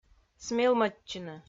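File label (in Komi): "смелмӧдчыны", Komi, female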